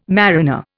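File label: "mariner", Indian English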